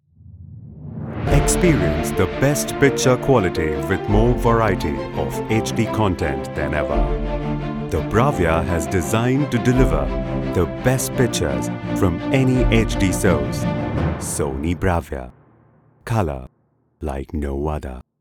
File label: ad eng; Sony Bravia